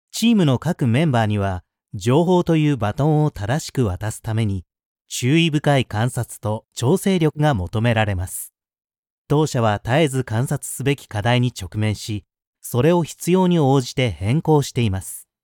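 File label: Japanese elearning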